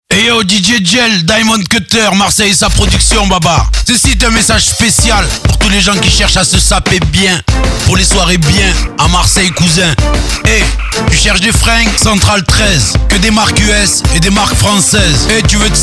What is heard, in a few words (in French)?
radio pub spot